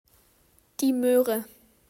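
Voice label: "die Möhre", German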